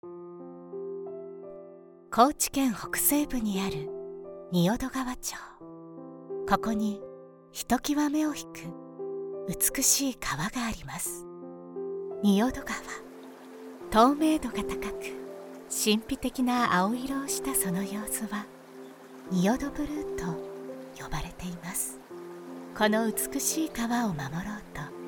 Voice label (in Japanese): ドキュメンタリー：ウィスパー